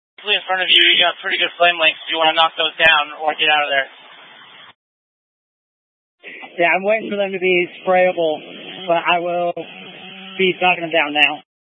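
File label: Incident Tac 1, Scanner audio recording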